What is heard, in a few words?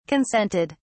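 "consented", British English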